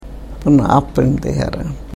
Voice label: karuk